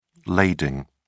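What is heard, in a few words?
"lading", British English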